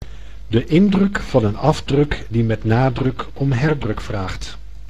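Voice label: "De indruk van een afdruk die met nadruk om herdruk vraagt.", Dutch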